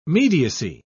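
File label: "mediacy", English, British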